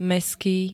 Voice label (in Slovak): "mestský", slovenské